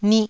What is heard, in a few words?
"nih1", taiwanese